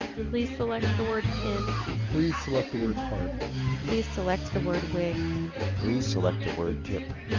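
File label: AMR wideband 23850 club; AMR-WB23,850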